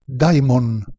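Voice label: "dh2imon", Proto-Indo-European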